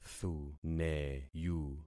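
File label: "thuneyu", concatenated syllables; Affixing experiment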